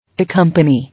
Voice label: "Accompany", English